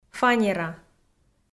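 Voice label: "фанера", Komi, female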